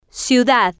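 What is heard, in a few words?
"ciu-dad", Spanish, female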